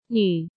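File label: "Nǚ", Chinese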